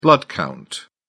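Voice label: "blood-count", British English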